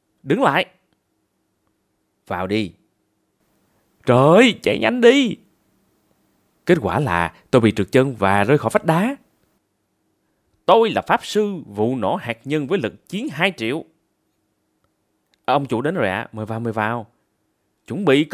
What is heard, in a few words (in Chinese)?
越南语男声 信息流